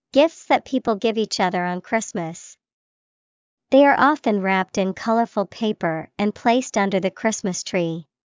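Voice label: present, English vocabulary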